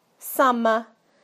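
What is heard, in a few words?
"summer", British English; PictureDictionary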